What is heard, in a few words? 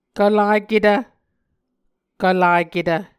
"kvlaketv", Muscogee; Mvskoke Words with Voicing Consonants; A Dictionary of Creek/Muskogee; SIL International; Onvkv Ohhvyayices